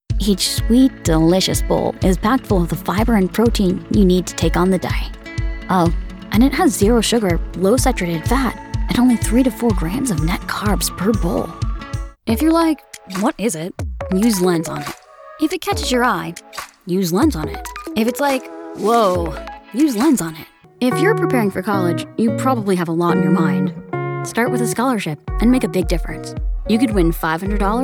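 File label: Commercial, voice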